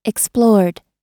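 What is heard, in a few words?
"explored", American English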